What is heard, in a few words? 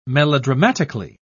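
"melodramatically", British English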